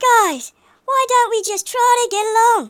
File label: i'll get you, speech, Hippy